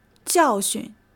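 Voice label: "jiàoxun", Chinese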